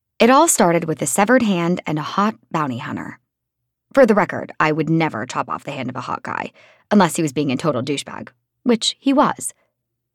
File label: YA Fantasy 1st POV conversational youthful comedic